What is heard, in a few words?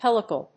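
"helical", English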